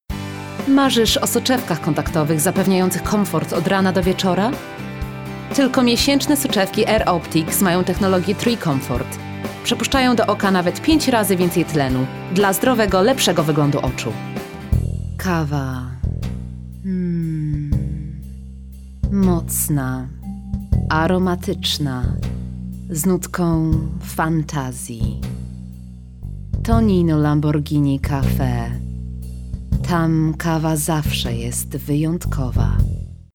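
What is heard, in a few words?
Polish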